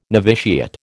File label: "noviciate", American